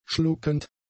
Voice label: "schluckend", German; adjectives